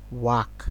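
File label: "wak", Nigerian Pidgin, male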